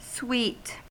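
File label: "suite", US English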